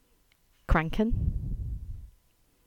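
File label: "Crankan", English; place-names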